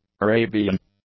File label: "Arabian", English; adjectives